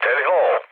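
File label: Serbian enemy detected mood med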